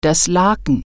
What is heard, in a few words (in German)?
"sheets", German